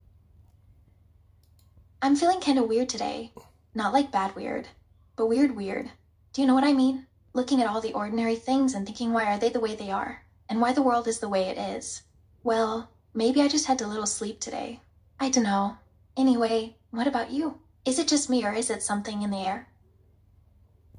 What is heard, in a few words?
voice message